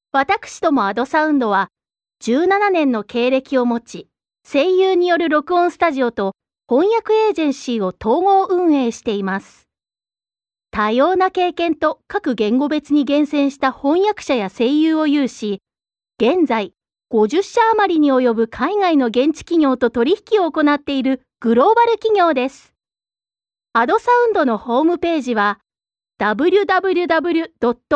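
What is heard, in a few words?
Japanese, female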